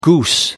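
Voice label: "goose", English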